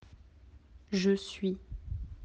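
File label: "être", French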